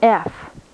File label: alphabet f